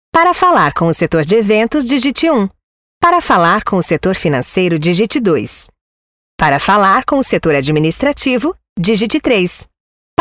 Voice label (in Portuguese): espera telefonica